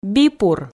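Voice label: "бипур", Komi, female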